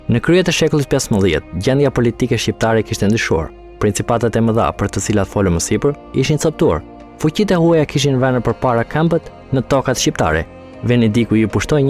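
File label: Albanian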